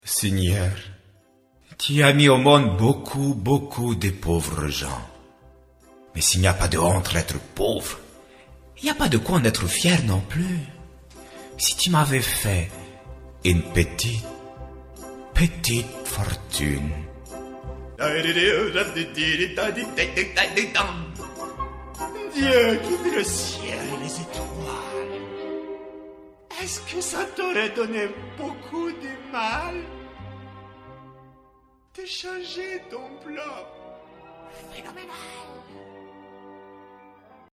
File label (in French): voix jouee